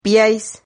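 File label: "piais", Spanish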